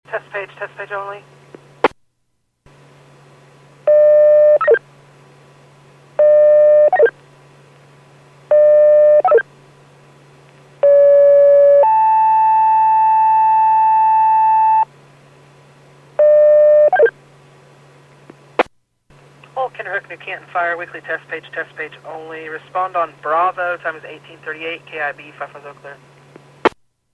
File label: PAGING